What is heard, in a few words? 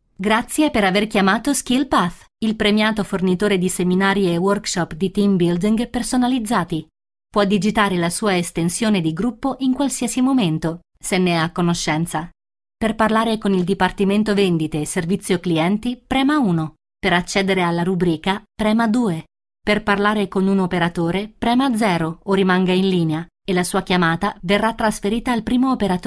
Italian native, bilingual